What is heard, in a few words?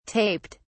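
"taped", British English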